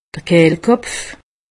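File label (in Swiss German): "kehlkolpf", Haut Rhin; medecine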